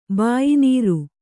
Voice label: "ಬಾಯಿನೀರು", kannada